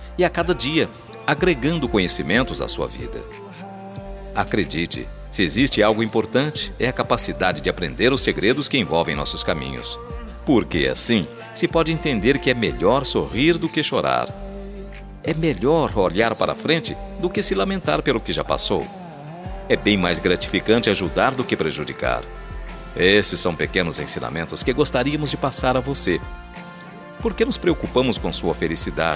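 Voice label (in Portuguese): ANIV NETO male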